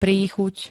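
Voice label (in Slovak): "príchuť", Slovak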